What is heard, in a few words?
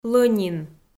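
"лӧнин", Komi, female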